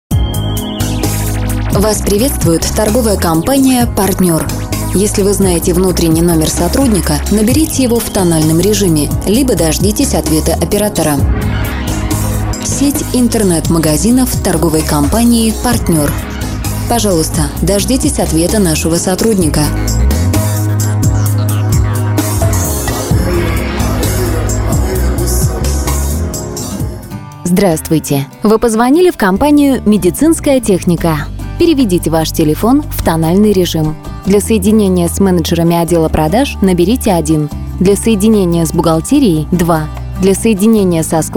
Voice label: IVR